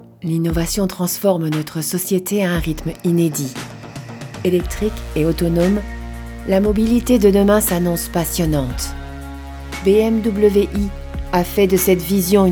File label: Elegant